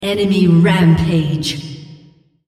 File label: female Killing Spree